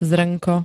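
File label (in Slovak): "zrnko", Slovak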